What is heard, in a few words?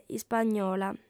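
"ispagnola", female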